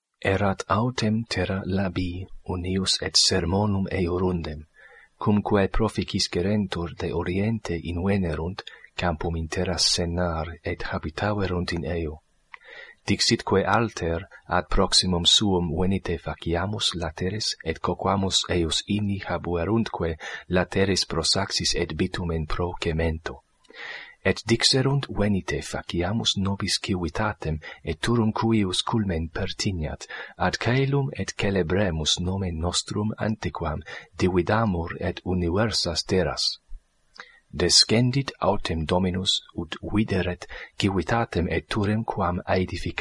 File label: latin